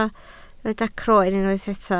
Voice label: "croen", Welsh